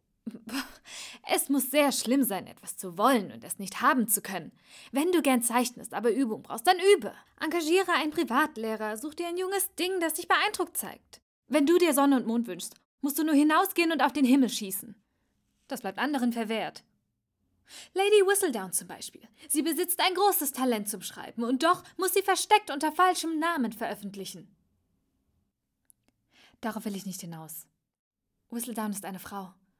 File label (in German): Hoerspiel